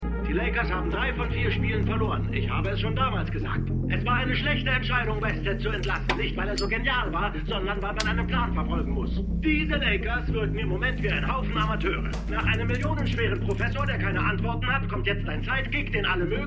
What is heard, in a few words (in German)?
Sportmoderator; Winning Time